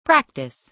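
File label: "practise", American English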